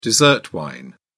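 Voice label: "dessert wine", British English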